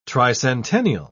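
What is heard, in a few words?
"tricentennial", British English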